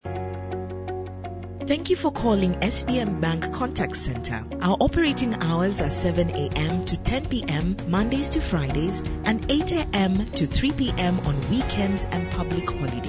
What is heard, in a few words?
english african ivr